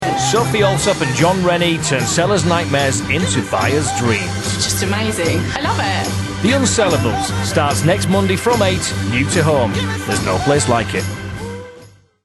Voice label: PROMO Upbeat Bright Northern; the unsellables